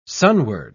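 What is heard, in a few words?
"sunward", English, uk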